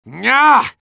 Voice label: negative vocalization; medic

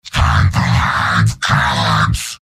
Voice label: heavy taunts; tf2; garrysmod; mvm

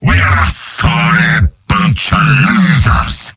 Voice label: jeers; demoman; mvm

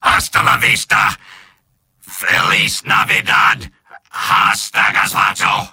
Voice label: soldier robot; mvm

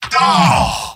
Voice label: negative vocalization, scout; tf2; garrysmod; mvm

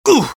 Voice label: scout taunt exert; vo; taunts; tf2

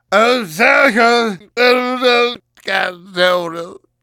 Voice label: gibberish; demoman

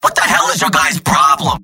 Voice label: scout jeers; mvm

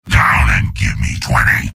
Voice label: soldier jeers; mvm

